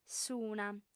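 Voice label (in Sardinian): "s'una", female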